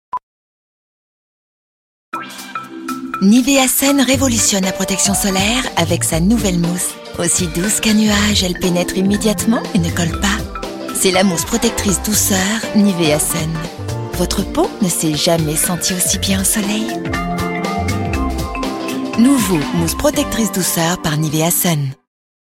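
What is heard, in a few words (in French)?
Solaire light, voix off comédienne; audioguide; Nivea Sun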